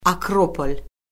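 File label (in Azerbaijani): "akropol", Azerbaijani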